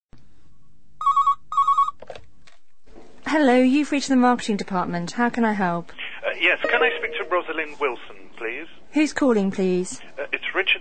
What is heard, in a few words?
TELEPHONE ENGLISH connecting